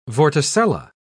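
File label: "vorticella", British English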